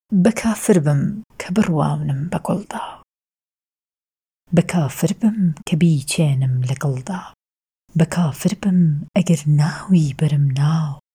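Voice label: Kurdish Sorani, female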